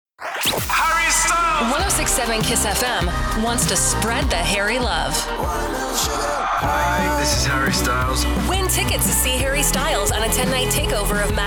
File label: english canadian radio imaging; professional Canadian voice actor, with a North American neutra